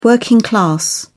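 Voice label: "working class", British English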